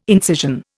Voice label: "incision", Indian